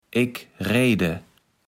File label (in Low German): "ekrejde", kaevels platt